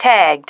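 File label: "tagged", female voice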